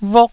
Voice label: "vuk4", taiwanese

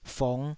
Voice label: "fong5", Taiwanese

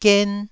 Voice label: "gen1", Taiwanese syllable

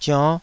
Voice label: "zionn5", taiwanese

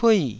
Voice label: "pui4", taiwanese

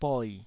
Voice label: "boi3", taiwanese syllable

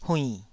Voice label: "huinn3", Taiwanese syllable

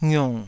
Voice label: "ngiung3", taiwanese syllables

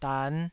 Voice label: "dan5", Taiwanese syllable

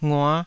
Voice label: "ngua5", taiwanese syllables

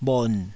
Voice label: "bhon3", taiwanese Syllables

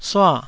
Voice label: "sua4", taiwanese Syllables

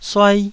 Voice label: "suai1", taiwanese Syllables

